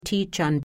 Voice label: "Tietschern", Pennsylvania Dutch; t in top